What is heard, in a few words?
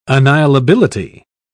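"annihilability", British English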